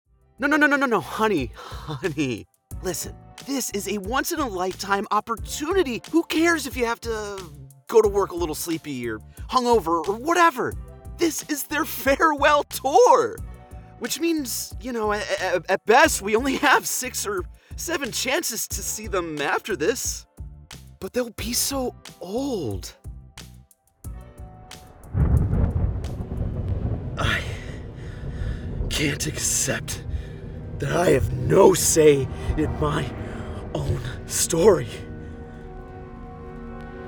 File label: character demo reel